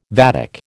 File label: "vatic", American